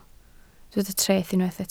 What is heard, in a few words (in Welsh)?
"treth" Welsh